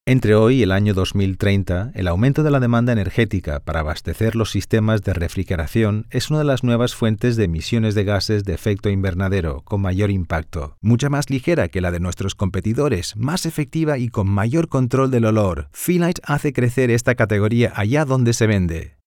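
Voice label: Spanish European E-Learning; GoLocalise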